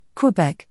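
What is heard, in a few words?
"q", British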